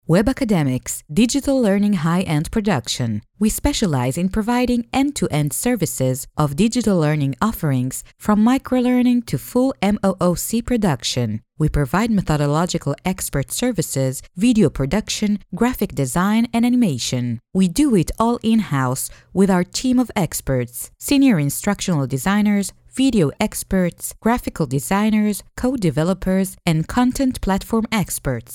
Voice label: English narrative